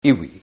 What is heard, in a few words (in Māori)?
"iwi", te reo